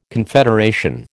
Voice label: "confederation", American

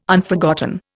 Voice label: "Unforgotten", Indian

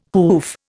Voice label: "hoof", Indian

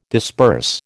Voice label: "disperse", American

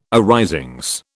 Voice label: "arisings", British

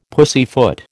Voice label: "pussyfoot", American

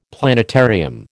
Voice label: "planetarium", American

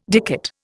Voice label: "dicot", Indian

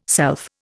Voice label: "self", Indian

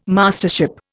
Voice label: "Mastership", Indian

